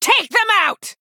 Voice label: Female Night Elf Attack